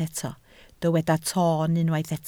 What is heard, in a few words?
"toon", Welsh